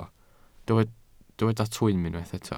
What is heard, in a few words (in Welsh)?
"twym", Welsh